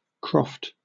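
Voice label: "croft", British English; pronunciations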